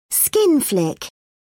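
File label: "skin flick", British English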